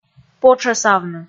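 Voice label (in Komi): "потшӧсавны" Komi, female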